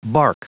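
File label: "bark", English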